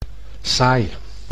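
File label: "saai", Dutch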